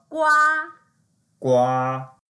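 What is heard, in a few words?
"gua1", Mandarin Chinese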